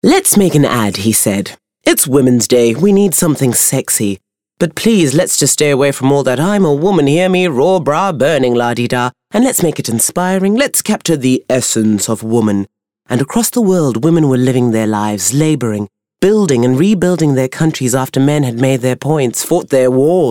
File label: English Bright; OSM Talent